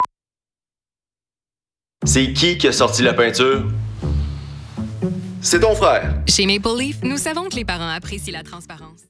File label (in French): Publicité; Maple Leaf